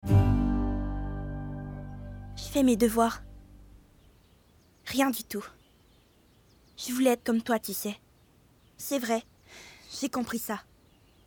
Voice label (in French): Doublage 8 17 ans